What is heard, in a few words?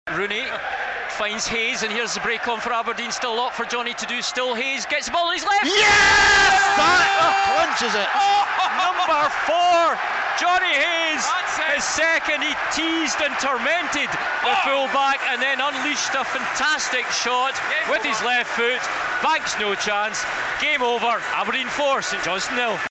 TV commentary; Red TV; Northsound 1